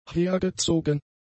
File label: "hergezogen", German; adjectives